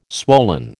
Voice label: "swollen", American